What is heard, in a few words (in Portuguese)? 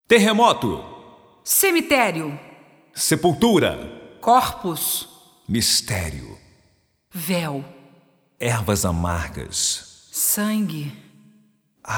Narração